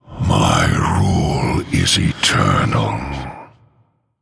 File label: Male Human Thinking